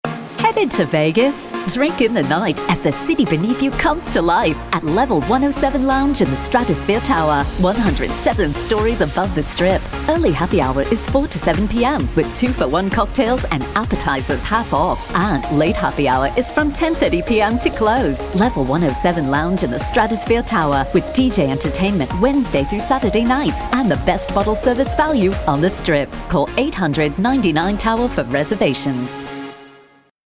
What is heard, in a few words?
Accent British